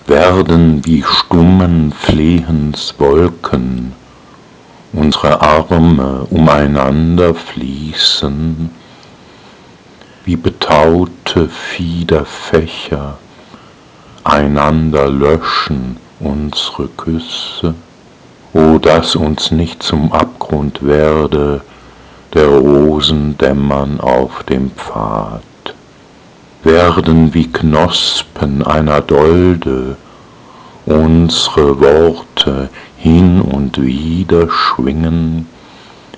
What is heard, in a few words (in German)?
Die weibliche Stimme, lyrische Gedichte; Rezitation